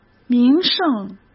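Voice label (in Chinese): "名胜", Mandarin Chinese; hsk; chinese flashcards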